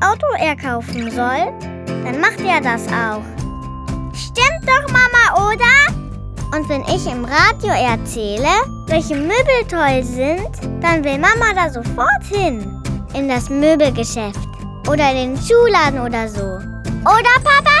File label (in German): Werbung Kinderstimmen